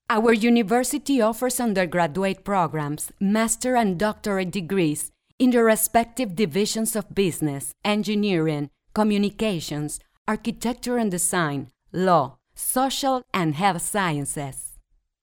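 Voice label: Spanish Latin America female English; spanish americas voice over talent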